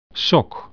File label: "sock", dutch